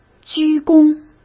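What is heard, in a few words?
"鞠躬", Mandarin Chinese; chinese-flashcards; hsk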